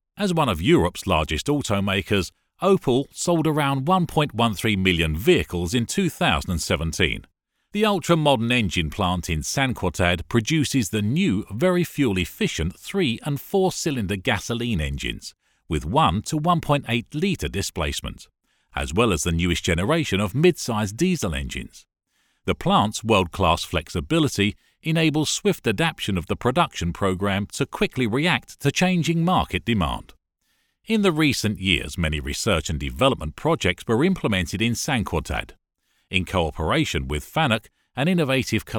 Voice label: 外籍英男, 干音

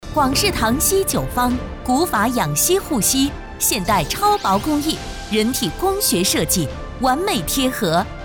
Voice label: 女 电视大气广告

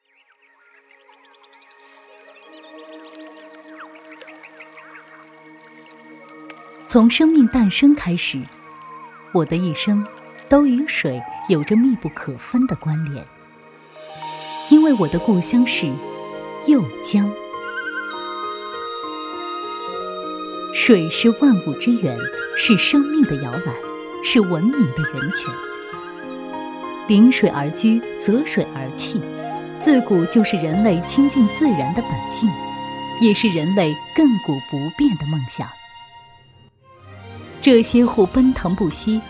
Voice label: 女 宣传片

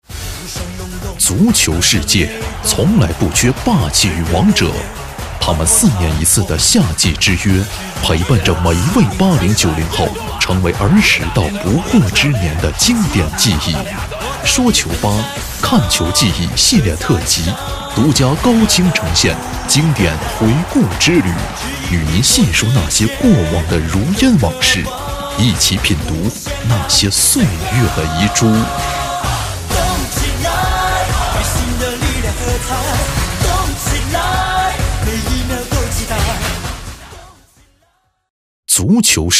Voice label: 男 商业广告 足球世界

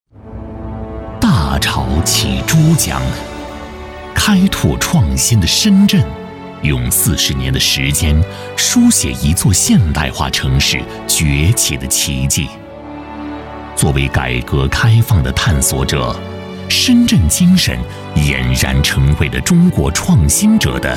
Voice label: 男 大气深情 宣传片; 大潮起珠江

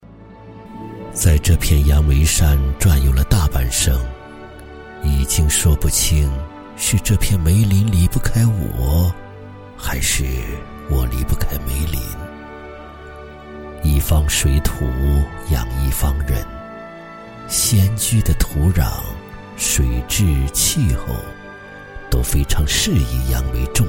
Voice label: 男,独白,护林员独白

